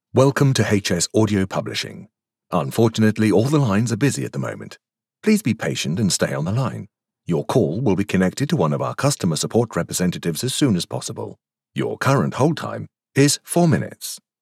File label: IVR All Lines Are Busy